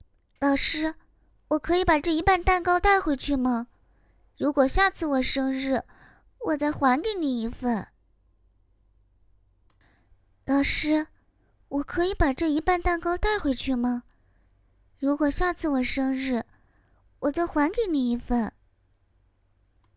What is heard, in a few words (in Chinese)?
角色配音蛋糕女童